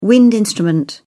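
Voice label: "wind instrument", British English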